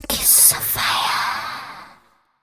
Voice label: fem spo, Vocals; Mutekki Media; Diamond Vocals 2